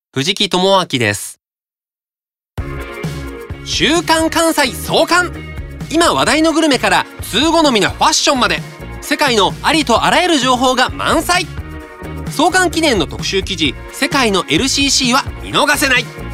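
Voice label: commercial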